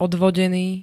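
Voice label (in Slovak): "odvodený", Slovak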